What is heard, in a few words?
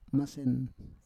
"masen", Roon